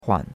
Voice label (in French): "huan3", chinois; Cours-de-Chinois